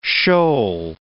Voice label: "shoal", words